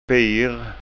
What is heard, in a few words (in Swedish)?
"bir", skånska